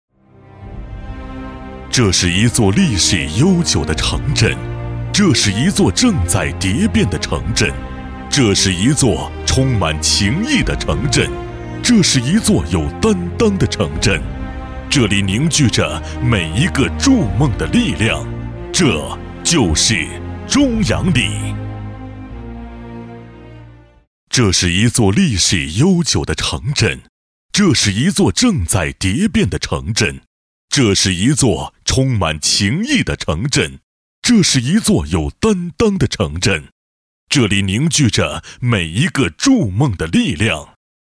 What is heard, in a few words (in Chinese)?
男声, 大气洪亮, 国语; 和美中阳; 交广主播